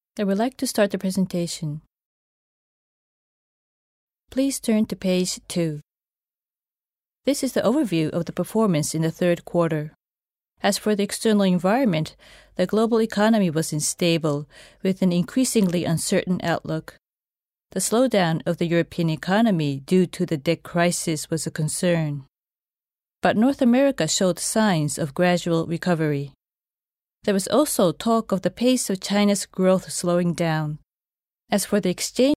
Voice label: english